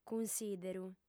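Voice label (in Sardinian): "cunsìderu", female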